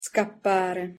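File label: "scappare", pronunciation, Italian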